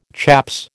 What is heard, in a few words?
"chaps", American